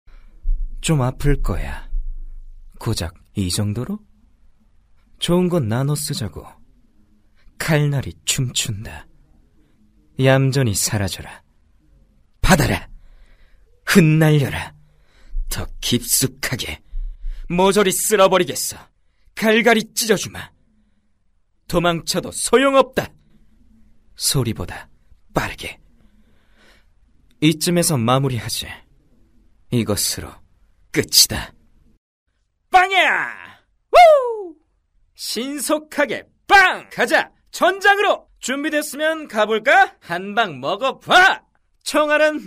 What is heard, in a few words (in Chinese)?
韩语男声 信息流游戏